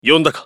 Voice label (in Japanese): ウィザード男 選択